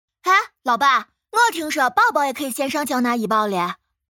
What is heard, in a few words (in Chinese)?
陕西方言 女 陕西话宝妈; 方言配音